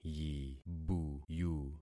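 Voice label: "yibuyu", concatenated syllables; Affixing experiment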